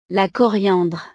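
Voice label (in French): "la coriandre", French; verduras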